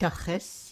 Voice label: "tuches", Yiddish

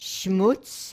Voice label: "shmutz", Yiddish

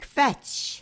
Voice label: "kvetsh", Yiddish

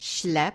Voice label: "shlep", Yiddish

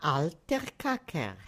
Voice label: "altercocker", Yiddish

